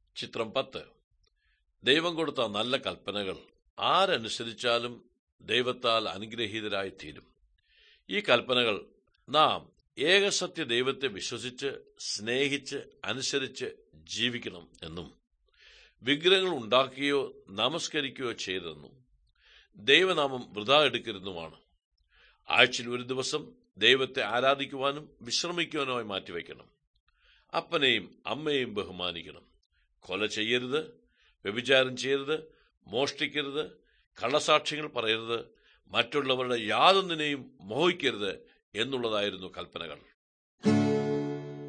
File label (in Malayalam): good news malayalam, drama; world bible translation center nt drama